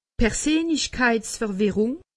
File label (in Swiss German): "perseenlichkeitverwirrung", alsace; medecine